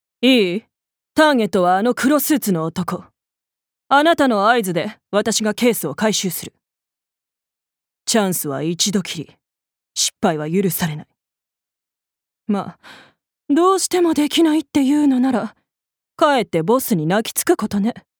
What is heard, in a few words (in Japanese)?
外画